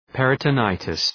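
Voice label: "peritonitis", English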